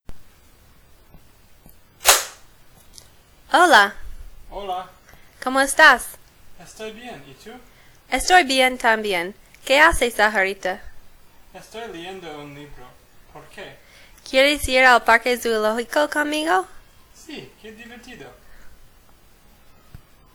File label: Female Part mono, es